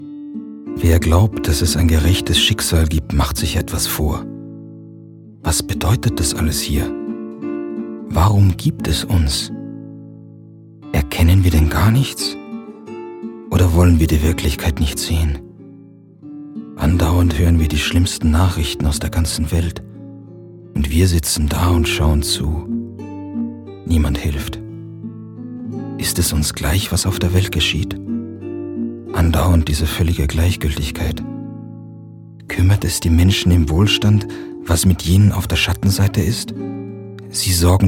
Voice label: german audiobooks

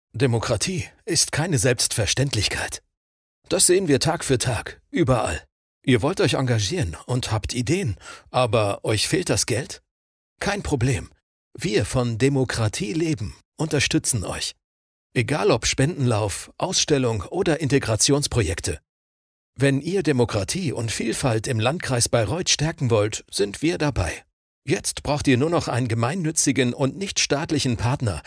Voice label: german narration, voice actors

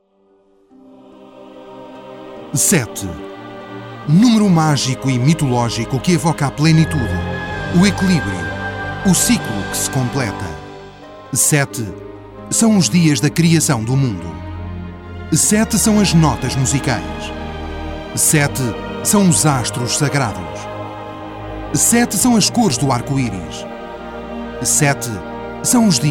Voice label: portuguese tv shows

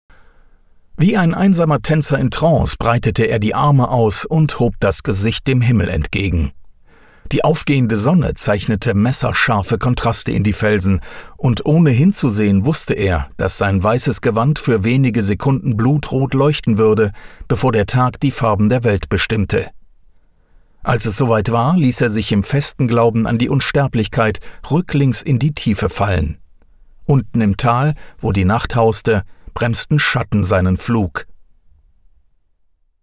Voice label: german narration

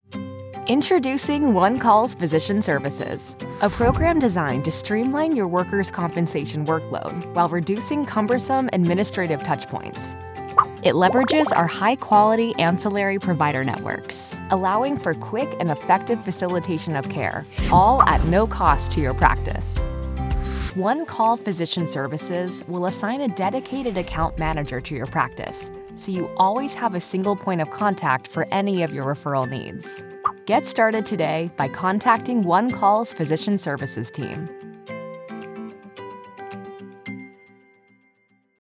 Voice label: english american explainer videos

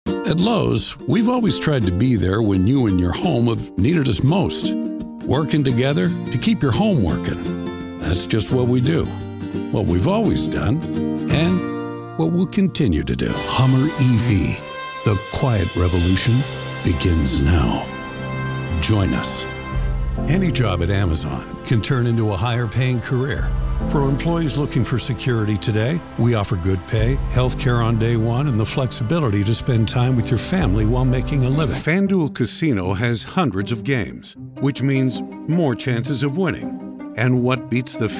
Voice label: english canadian commercial

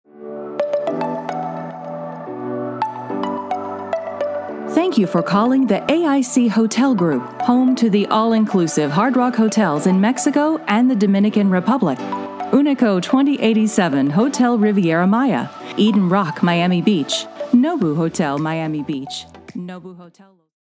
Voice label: english american ivr

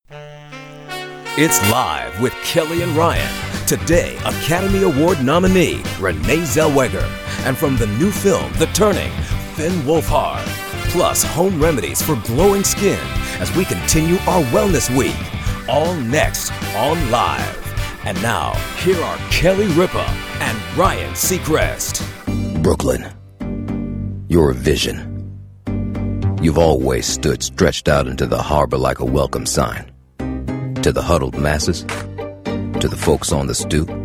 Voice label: english american tv shows

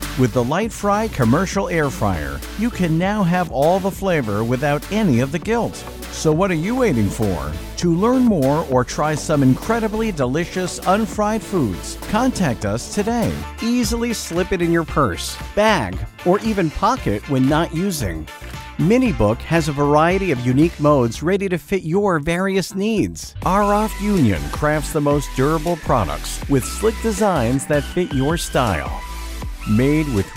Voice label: english american explainer videos

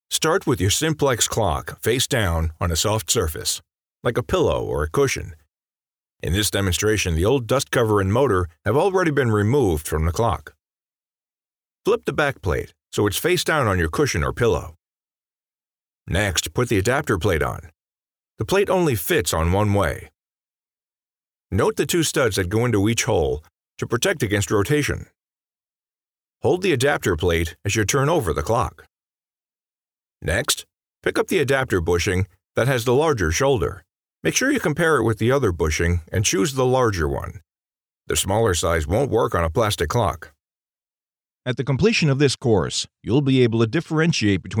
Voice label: english american e-learning

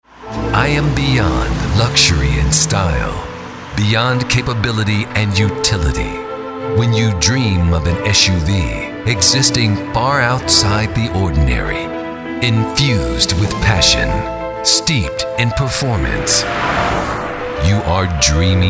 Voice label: english american

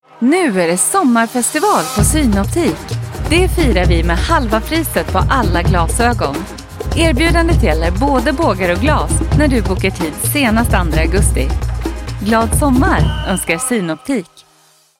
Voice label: Swedish commercial

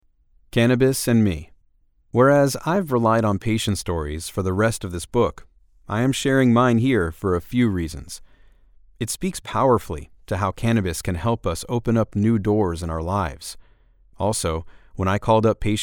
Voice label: english american audiobooks

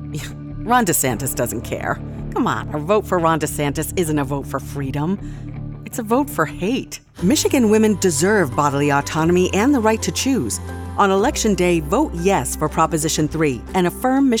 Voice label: english american political ads

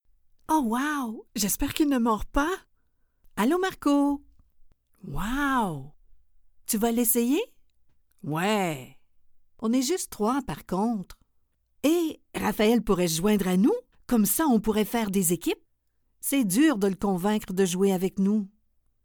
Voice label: french canadian animation

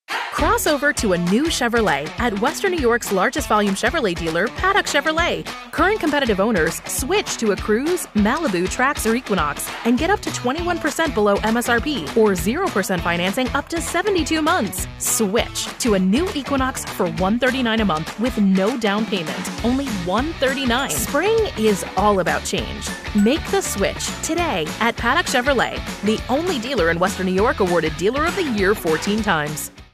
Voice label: english american automotive

